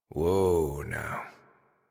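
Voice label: horse stop walk adult, English; Warframe; Duviri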